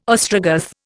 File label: "Ostrogoth", Indian